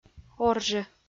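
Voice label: "оржы", Komi, female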